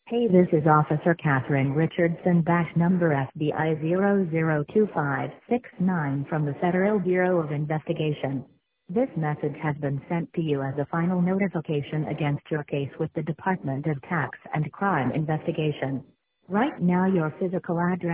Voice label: IRS voicemail